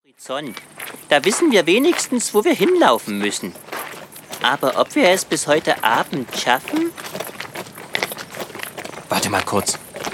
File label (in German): Hoerspiel; Der Diener des Prinzen